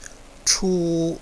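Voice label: "chu", Mandarin Chinese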